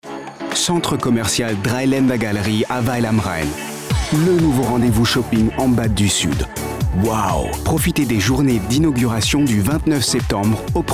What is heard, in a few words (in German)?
franzoesisch; dreilaendergalerie